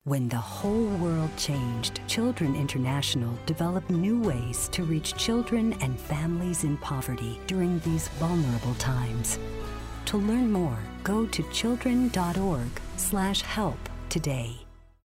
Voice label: Kids Speak English Radio; Children International